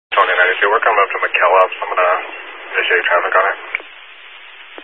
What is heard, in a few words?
Highway Patrol, scanner